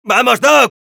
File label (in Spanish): Scout activate charge, es; ¡Vamos, doc!